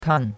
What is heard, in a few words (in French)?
"kan4", chinois; Cours de Chinois